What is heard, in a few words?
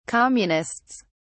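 "communists", British English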